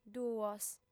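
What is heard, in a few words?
"duos", Sardinian, female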